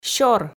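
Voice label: "сёр", Komi, female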